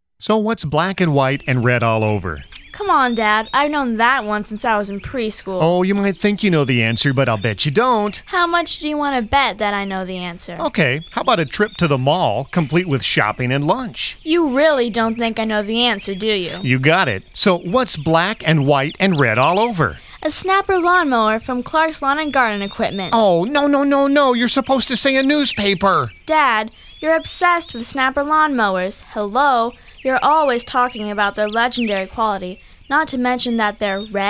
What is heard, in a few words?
Radio spot multi voice